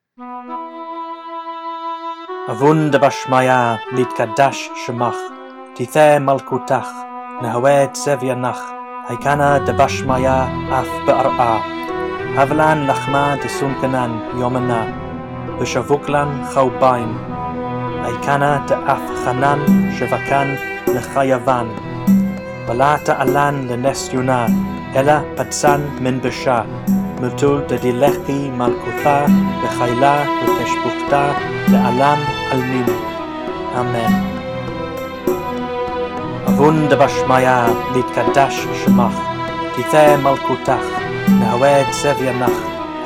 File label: Lords Prayer Meditational, Aramaic